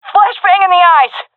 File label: blinded, seal fem; vo; Counter Strike Model Pack; CS:GO